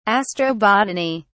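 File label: "astrobotany", British English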